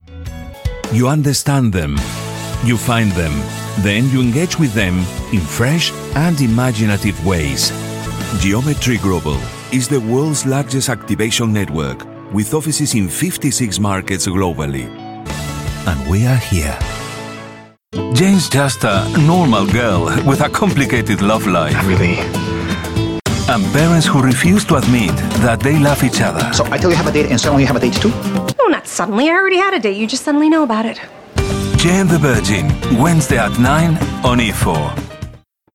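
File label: Spanish English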